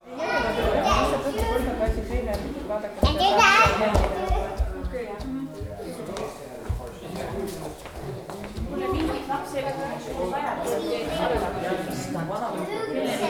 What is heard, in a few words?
estonians speaking and accordion; ambiances